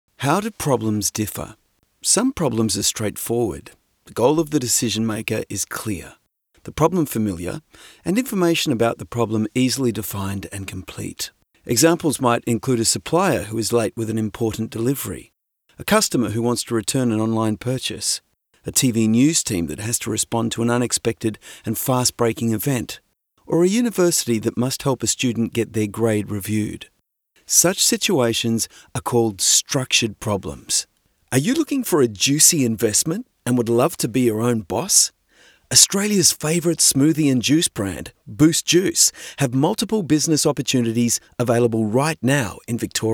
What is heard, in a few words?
Corporate